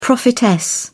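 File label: "prophetess", British English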